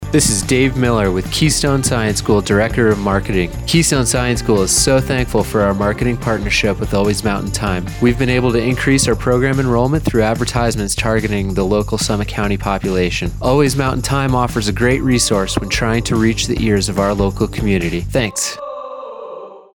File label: PROMO; KEYSTONE SCIENCE SCHOOL